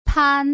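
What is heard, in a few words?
"pan", Mandarin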